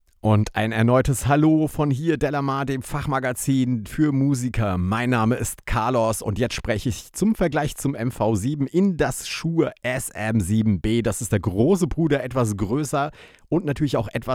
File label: sm7b vgl mv7; shure sm7db